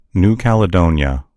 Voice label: "new caledonia", en US; gcompris; geography